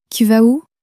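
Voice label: "tu vas ou", French, female; flashcards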